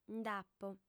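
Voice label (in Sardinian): "nd'apo", female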